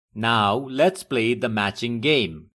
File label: "play", English